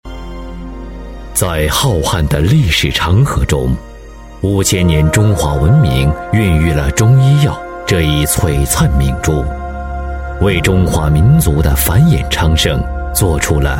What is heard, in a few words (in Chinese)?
男 专题 历史感, 国语男声配音; 大气专题; 膏药章